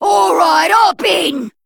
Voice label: Female Dredger Attack